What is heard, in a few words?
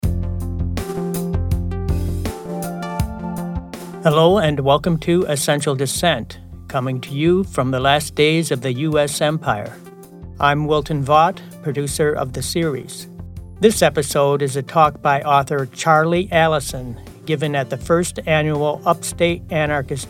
Voiceover; Essential Dissent